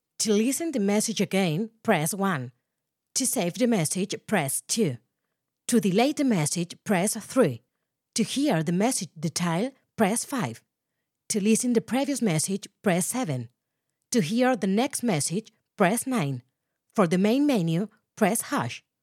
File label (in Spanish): Voz en ingles